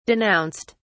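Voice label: "denounced", British English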